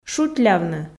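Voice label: "шутьлявны", Komi, female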